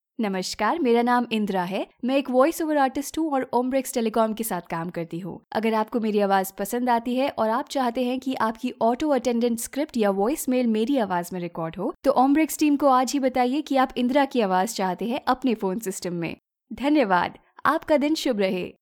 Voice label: Hindi; Ombrex; Indras